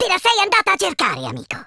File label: revenge, speech Italian